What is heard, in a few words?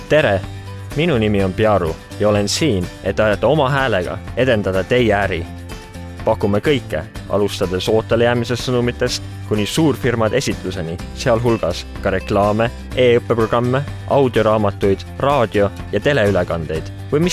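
Estonian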